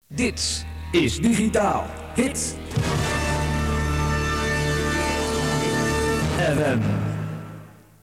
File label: Jingle; radiopiraten; Digitaal Hit FM